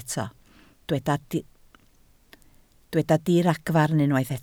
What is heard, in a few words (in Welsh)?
"diragfarn", Welsh